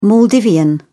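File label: "maldivian", British English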